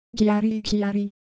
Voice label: Japanese Color Visual, Ideo Phones